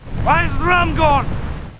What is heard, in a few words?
why is the rum gone, pirate